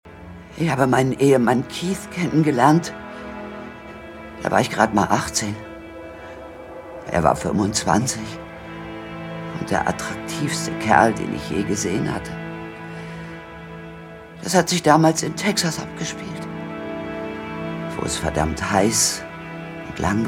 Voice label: Monolog, Audio Drama (Hörspiel), Lip-Sync (Synchron), German; Blutlinie 02

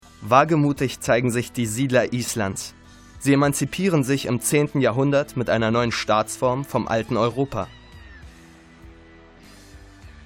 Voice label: Synchron Hoerprobe Doku

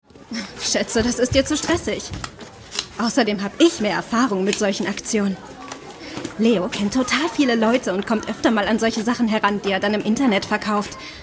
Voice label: Hörspiel jugendlich; LOFT VOICES

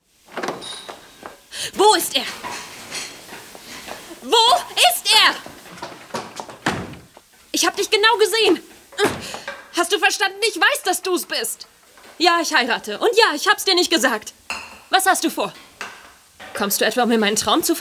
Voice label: Synchron wuetend; LOFT VOICES

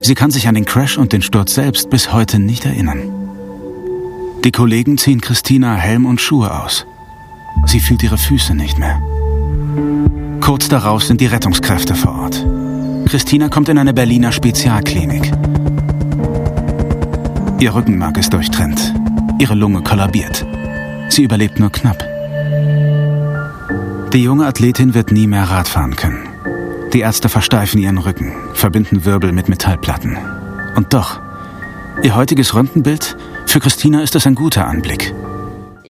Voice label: Reportage Bahnradfahrerin

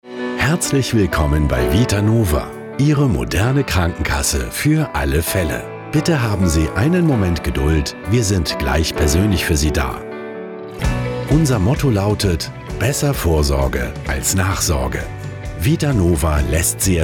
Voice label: Telefonansage, Wait Loop, German; Wait Loop (Warteschleife); Telefonansage - Vitanova (deutsch)

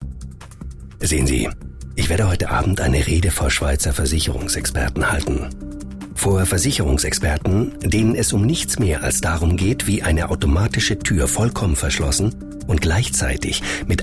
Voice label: testimonial sicherheit; LOFT VOICES

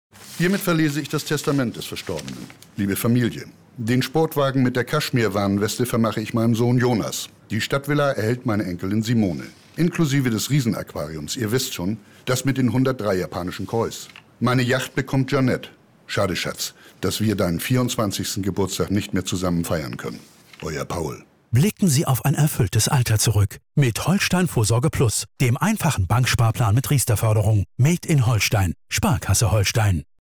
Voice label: werbung szene; sparkasse holstein

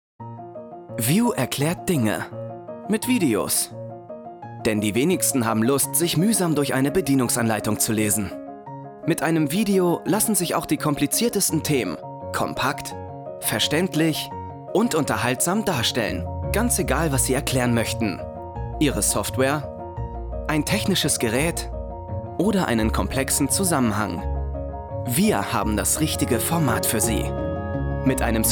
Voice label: imagefilm; vyuu

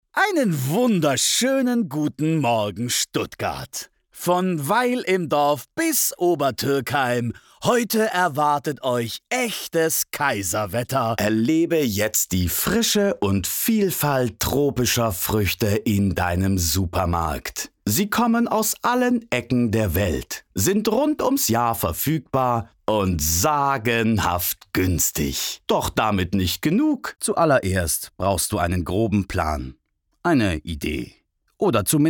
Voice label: werbung flippig; LOFT VOICES

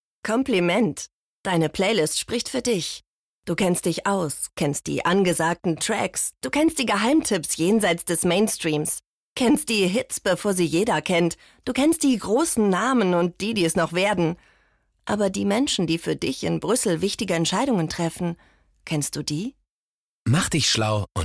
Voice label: Werbung Europawahl; LOFT VOICES

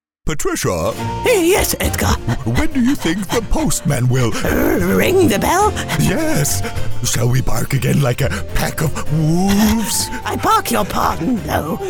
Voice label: Englisch Corgie Snacks

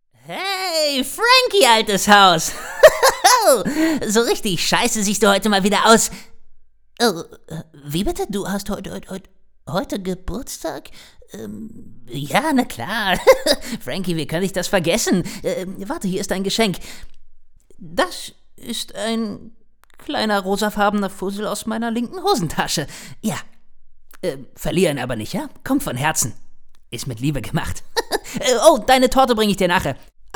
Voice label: Trickstimme; Franky

